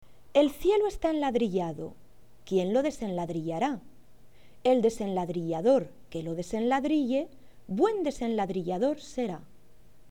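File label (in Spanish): "cielo", Spanish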